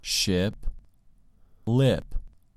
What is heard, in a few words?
"ship" "lip"